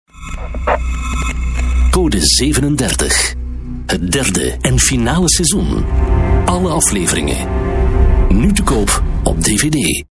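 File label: flemish m narration, Flemish, male; dutch; voice demos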